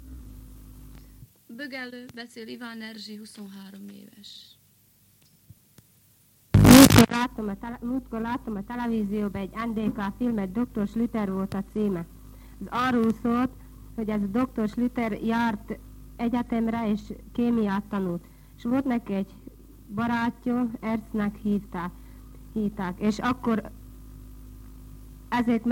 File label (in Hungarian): nyelvjaras gyujtesek